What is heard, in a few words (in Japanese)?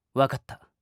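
"わかった", Japanese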